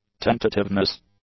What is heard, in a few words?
"tentativeness", english; nouns